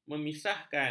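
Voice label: "memisahkan", Malay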